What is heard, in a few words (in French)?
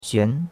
"xuan2", chinois; Cours-de-Chinois